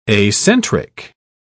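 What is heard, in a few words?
"acentric", British English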